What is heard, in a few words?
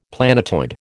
"planetoid", American